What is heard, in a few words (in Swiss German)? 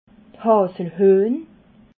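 "haselhuehn", Alsatian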